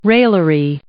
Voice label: "raillery", English

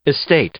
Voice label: "estate" noun; English

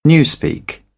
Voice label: "newspeak", British; AmericanBritish

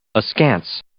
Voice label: "askance", English

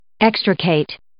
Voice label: "extricate", English

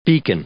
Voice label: "beacon", English; noun

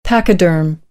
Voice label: "pachyderm", American English; ə - ɪ

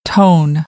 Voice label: "tone", American, English; oʊ - əʊ

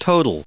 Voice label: "total", English

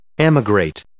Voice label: "emigrate", English

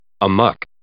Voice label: "amok", English

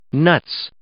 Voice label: "nuts", English

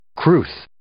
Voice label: "crwth", English

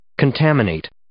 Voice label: "contaminate", English

